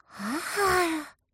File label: ADMIRATION; mirumiru